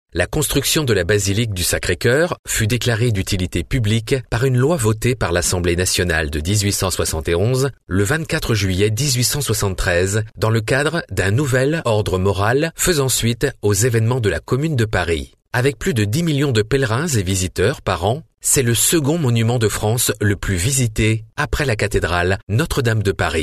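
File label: French, male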